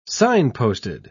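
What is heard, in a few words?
"signposted", British English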